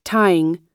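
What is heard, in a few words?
"tying", US English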